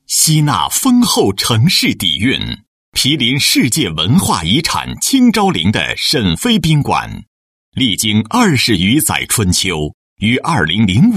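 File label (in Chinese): 男专题; 国语男声配音; 沈飞宾馆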